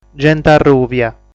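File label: "Genti Arrùbia", Sardinian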